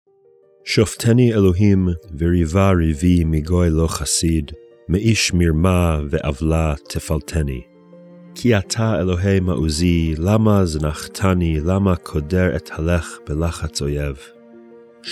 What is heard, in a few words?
poetry and prayer psalm hebrew